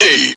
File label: "hey" male